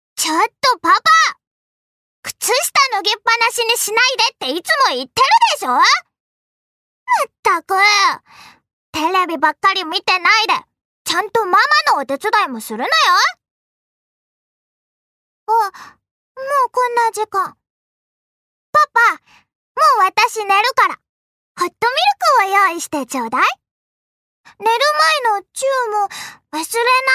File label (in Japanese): アニメ